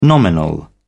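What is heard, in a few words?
"nominal", British English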